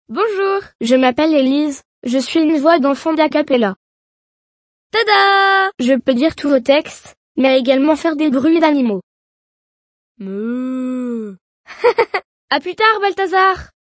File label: children voice FR